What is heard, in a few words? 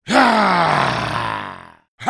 voice portugues brasileiro brazilian portuguese; jarvan; league of legends